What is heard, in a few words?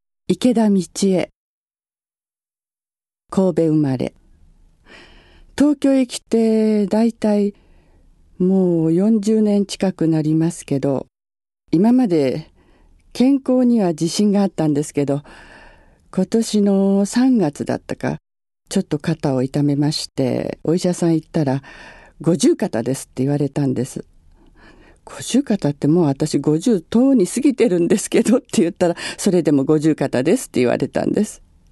free talk, female voice